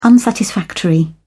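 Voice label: "unsatisfactory", English, British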